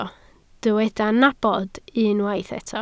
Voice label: "nabod", Welsh